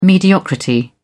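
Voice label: "mediocrity", English, British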